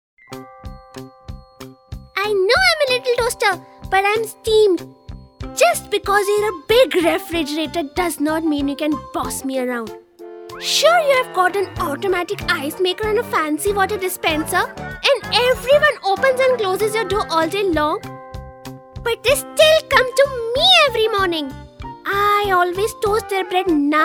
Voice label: Kid Voice english